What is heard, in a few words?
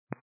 122 725MHz, scanner radio